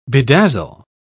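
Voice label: "bedazzle", British English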